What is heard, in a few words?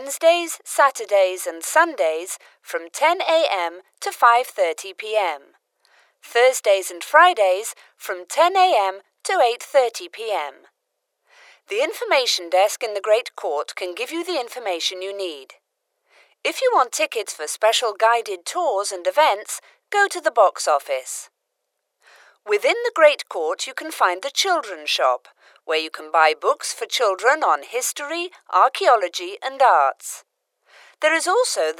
A recorded message of a museum